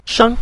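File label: "shang", Mandarin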